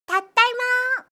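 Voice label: "taddaima", Japanese; gaisyutsu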